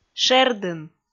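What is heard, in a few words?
"шердын", Komi, female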